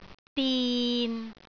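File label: "din6", Cantonese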